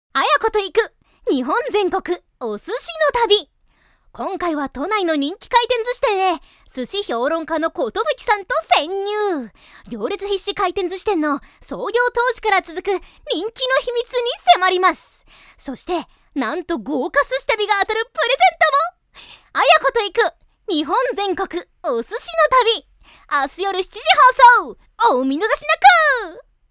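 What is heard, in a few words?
narration; ナレーション; ボイスサンプル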